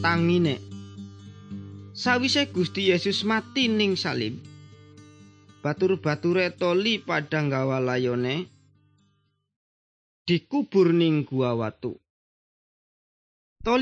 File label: good news jawa indramayu, javanese bible